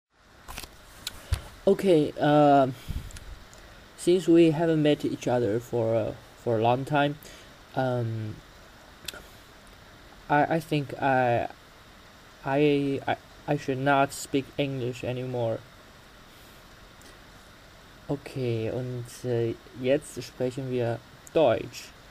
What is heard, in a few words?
monolog